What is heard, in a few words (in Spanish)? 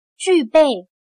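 "具备", chino mandarin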